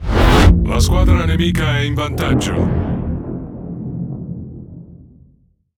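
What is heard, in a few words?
It Speech Domination Enemy Advantage, Italian; World Of Warships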